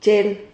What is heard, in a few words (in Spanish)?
"chel", Silabas